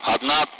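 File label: Speech, Russian; Allied Flight; Digits; DCS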